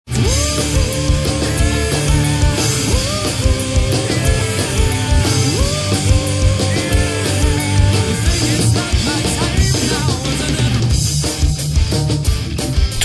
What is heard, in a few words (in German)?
Telefonansage Autohaus; Mothor